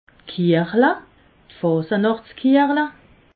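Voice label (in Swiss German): "kiechle", Alsatian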